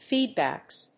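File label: "Feedbacks", English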